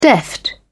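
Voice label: "deft", British English, dictionary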